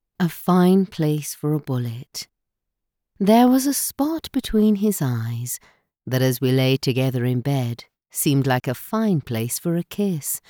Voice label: Burned South African; professional voice over